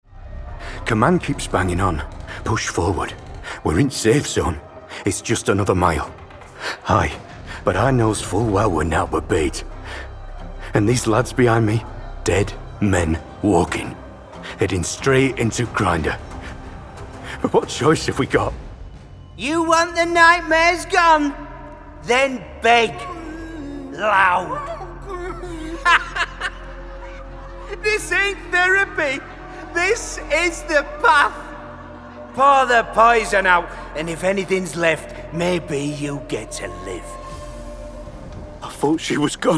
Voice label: Gaming Showreel